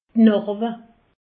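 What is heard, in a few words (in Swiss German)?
"narbe", Alsatian; medecine; cicatrice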